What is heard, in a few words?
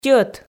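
"тьӧт", Komi, female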